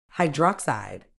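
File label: "hydroxide", British English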